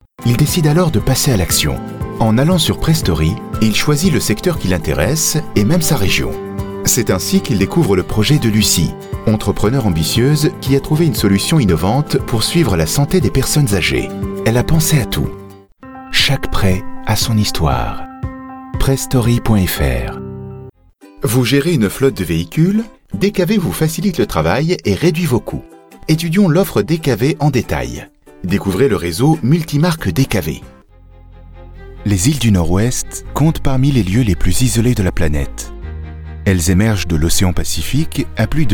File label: French Male Commerical